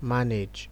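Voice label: "manage", Nigerian Pidgin, male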